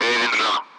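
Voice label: Speech, Russian; Allied Flight; AirDefence; Avenger; DCS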